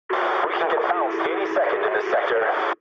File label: eng chat, speech eng